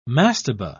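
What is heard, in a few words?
"mastaba", British English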